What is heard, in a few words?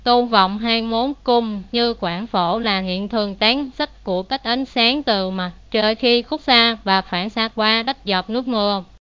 speaker, vi VN; piper voices; vivos